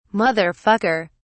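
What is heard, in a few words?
"mother fucker", British English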